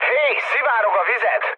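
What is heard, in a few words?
Hungarian damaged water mood med, speech; War Thunder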